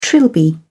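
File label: "trilby", British English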